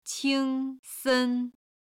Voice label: "qing1 sen1", Chinese